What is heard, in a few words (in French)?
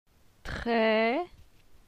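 "Tre", French; phonetique; la syllabe e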